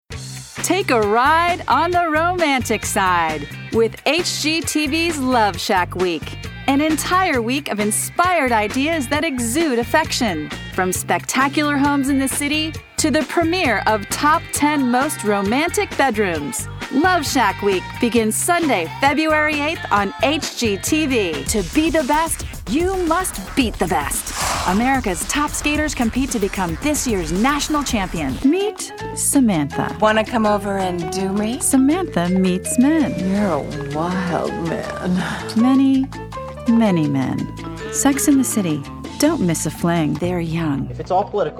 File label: Promo